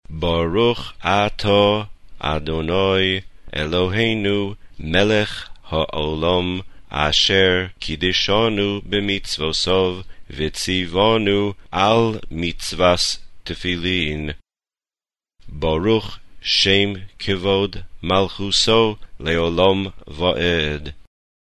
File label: ashkenazis